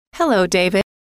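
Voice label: "hello", female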